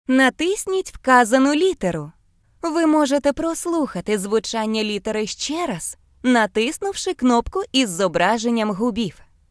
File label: click on letter up, voices, uk; gcompris-data